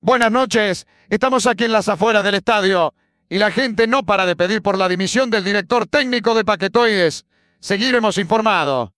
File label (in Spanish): reportero fuera del estadio